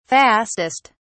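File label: "fastest", British English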